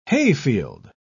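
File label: "hayfield", British English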